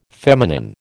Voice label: "feminine", American

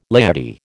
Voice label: "laity", American

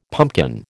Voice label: "pumpkin", American

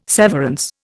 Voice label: "severance", Indian

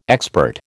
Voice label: "expert", American

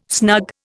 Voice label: "snug", Indian

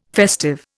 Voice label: "festive", Indian

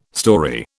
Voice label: "storey", British

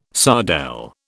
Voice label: "sardelle", British

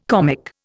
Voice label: "comic", Indian

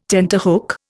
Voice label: "tenterhook", Indian

